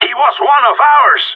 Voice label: friendly killed, radio; GSG9